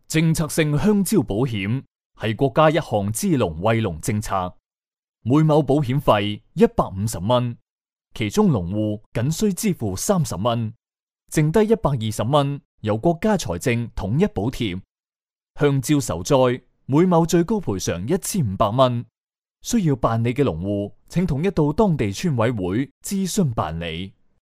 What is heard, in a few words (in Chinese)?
粤男 专题; 政策性保险